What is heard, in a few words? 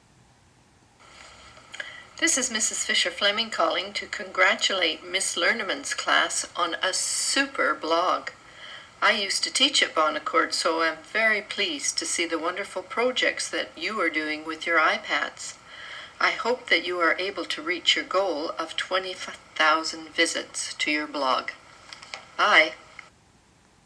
a voice message from a former bonaccord teacher